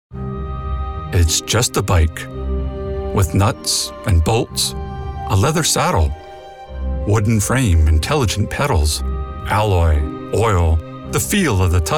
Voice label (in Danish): Reklame